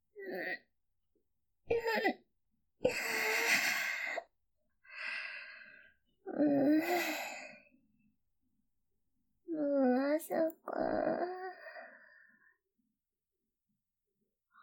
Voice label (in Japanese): おはよう！, ASMR